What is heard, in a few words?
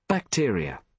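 "bacteria", British English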